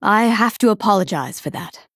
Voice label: Female Dryad Sorry